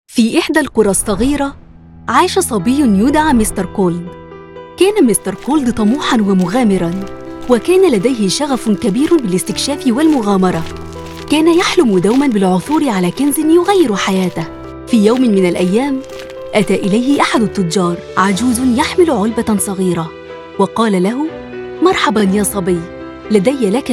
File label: Animation